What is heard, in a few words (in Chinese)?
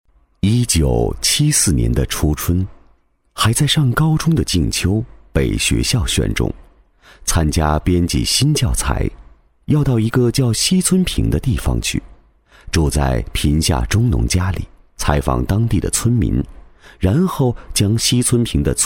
男 人物解说, 低沉磁性